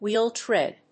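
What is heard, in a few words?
"WHEEL TREAD", English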